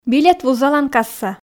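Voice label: "билет вузалан касса", Komi, female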